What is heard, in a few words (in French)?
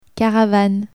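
"caravane", French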